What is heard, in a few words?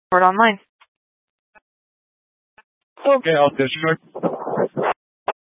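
Incident Tactical 3, Scanner audio recording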